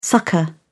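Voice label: "succour", British English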